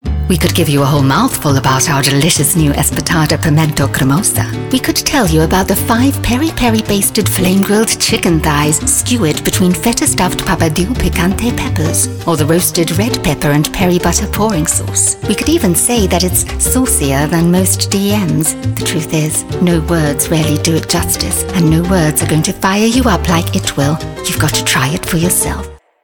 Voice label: light and saucy radio spot